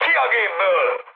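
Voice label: Hungarian bailout mood high, speech; War Thunder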